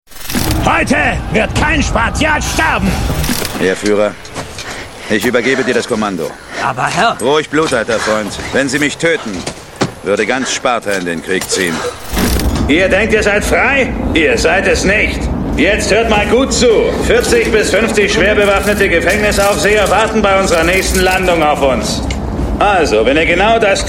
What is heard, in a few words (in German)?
Synchron